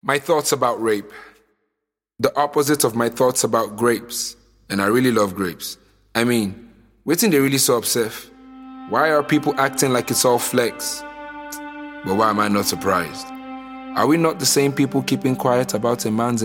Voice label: Rap voem